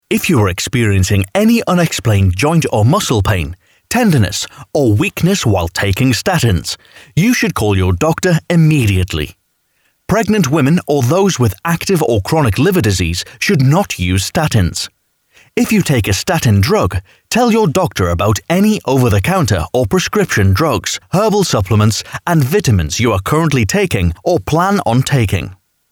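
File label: English UK Male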